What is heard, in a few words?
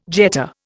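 "jeté", Indian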